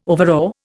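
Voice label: "overawe", Indian English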